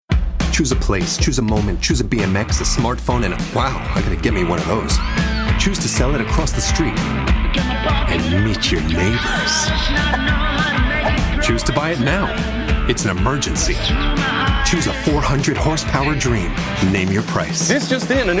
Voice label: male american english app comercial; ideasonora